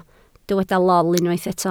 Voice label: "lol", Welsh

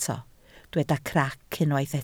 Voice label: "crac", Welsh

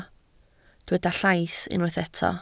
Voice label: "llaith", Welsh

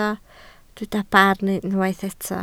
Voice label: "barn", Welsh

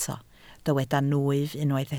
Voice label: "nwyf", Welsh

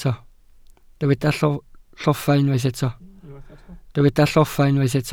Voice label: "lloffa", Welsh

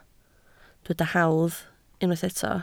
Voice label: "hawdd", Welsh

